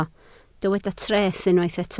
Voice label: "treth", Welsh